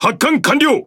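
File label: Speech Pilots Bomber Airborne, Japanese; World Of Warships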